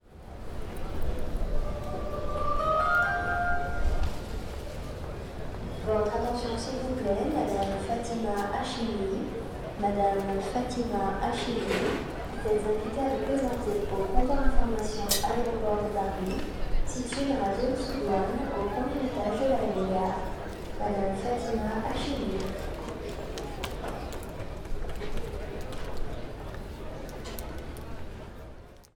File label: Announcement Paris Airport Annonce Orly